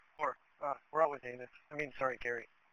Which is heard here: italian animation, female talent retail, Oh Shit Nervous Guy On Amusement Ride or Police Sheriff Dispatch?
Police Sheriff Dispatch